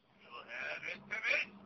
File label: angry japanese sms, ringtone